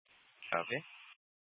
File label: Mesa PD Dispatch; Fiesta